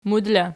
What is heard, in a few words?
"mud", Archi